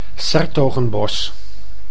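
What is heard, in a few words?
"'s-Hertogenbosch", Dutch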